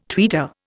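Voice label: "tweeter", Indian English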